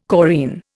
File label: "chorine", Indian